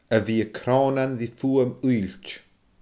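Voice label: "a bhith a croonan ri fuaim uillt", Scottish Gaelic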